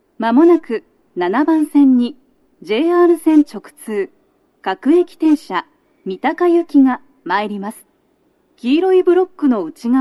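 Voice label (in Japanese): 接近放送 女声, 各駅停車 三鷹行き (混雑付帯), 原木中山で、通勤快速 の通過待ちを致します; 放送は「各駅停車 三鷹行き (混雑付帯)」です。原木中山で、通勤快速 の通過待ちを致します。; 収録者:こたけ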